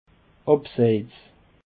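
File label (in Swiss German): "abseits", Alsatian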